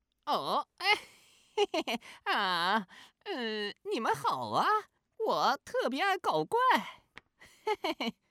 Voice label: 男 角色 搞怪男

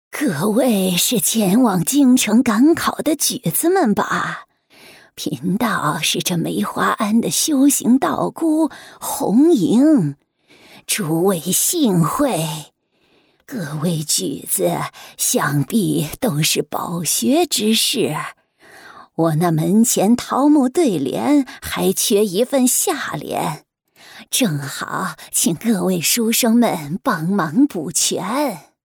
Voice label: A女 角色 孟婆

